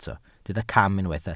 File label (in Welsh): "cam", Welsh